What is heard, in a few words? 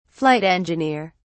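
"flight engineer", English, British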